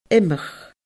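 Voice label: "imeach", Scottish Gaelic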